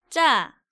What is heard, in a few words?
"Jja", Korean; DigitalSoju